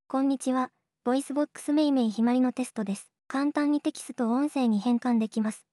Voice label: voicevox test speach